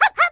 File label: girl hup; Wii